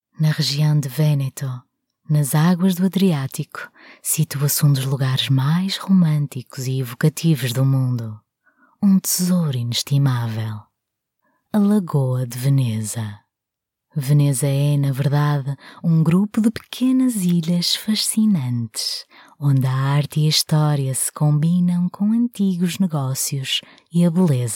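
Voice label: portuguese female corporate, European Portuguese Female Voice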